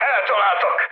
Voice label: aircraft destroyed, speech hun; War Thunder